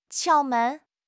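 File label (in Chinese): "窍门", Chinese; HSK6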